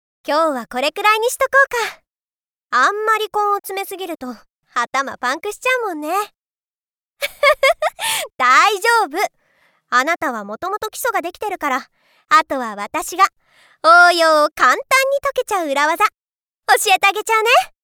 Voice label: highschoolgirl; 女子校生